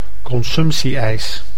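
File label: "consumptieijs", Dutch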